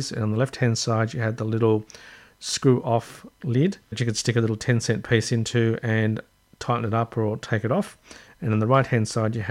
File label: keyboard demo using VoiceOver; YourType